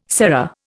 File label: "serra", Indian